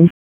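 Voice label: Raleigh Police North District Tactical; wake; p25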